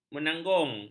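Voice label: "menanggung", Malay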